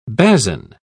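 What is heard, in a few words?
"basan", British English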